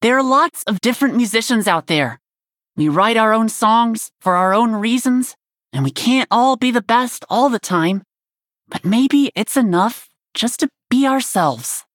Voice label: Warrior Nonbinary Night Elf Victory; Mission12 Victory

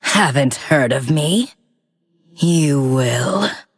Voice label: Female Forsaken Play

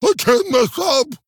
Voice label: Male Orc Attack

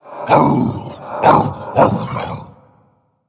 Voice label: Undead Beast Emote; Arfus; PIRATE DAY

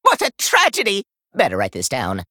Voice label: Male Gnome Play

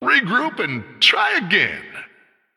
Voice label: Male Human Combat Loss

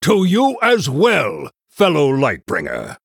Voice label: Male Human Greetings

